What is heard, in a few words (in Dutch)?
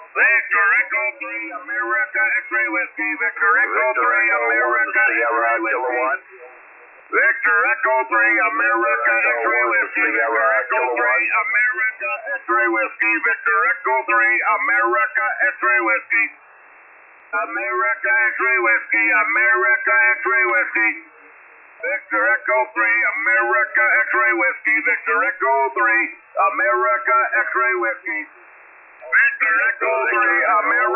zendamateurs USB